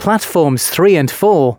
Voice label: announcements, male

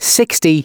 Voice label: announcements male

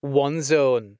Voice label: 1 Zone, announcements male

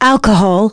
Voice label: female, announcements

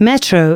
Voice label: announcements female

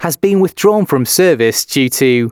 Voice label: announcements male

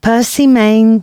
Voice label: announcements, female

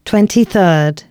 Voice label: announcements female

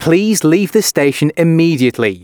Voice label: announcements, male

